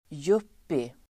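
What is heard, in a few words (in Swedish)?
"yuppie", Swedish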